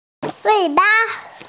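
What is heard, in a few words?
"tail", hearing impaired; wei3ba5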